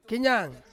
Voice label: "kenyang", Ansus; sea turtle